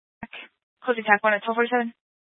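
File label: Incident Tactical, scanner audio recording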